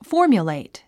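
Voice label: "formulate", English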